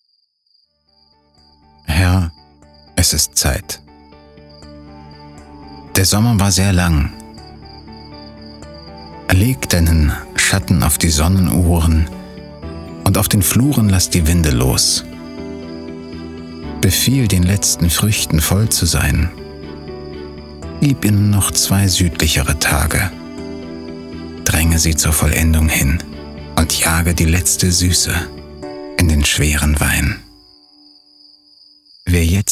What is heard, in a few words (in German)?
Poetisch